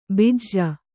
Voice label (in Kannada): "ಬಿಜ", Kannada